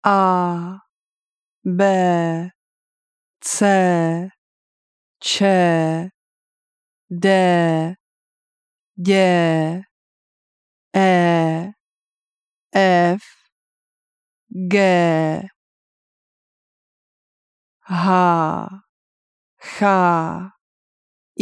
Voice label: alphabet tcheque; langue tchèque

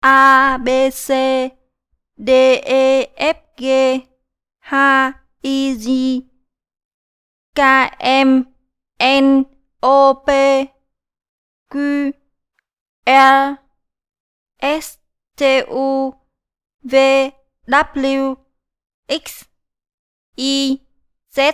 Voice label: alphabet vietnamien; langue vietnamienne

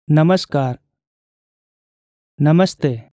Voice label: nepalais bonjour namaste; nepalais nepali

